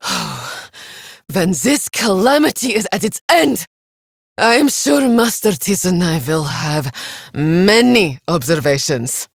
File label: Villagers Fem en